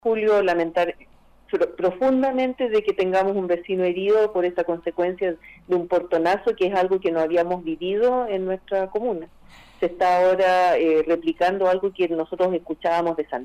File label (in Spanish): CUÑA; PORTONAZO